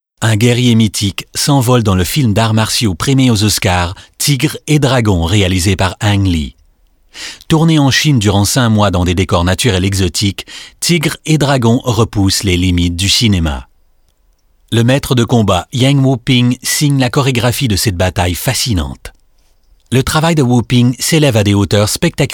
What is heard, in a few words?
Narration